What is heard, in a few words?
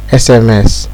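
"sms", Nigerian Pidgin, male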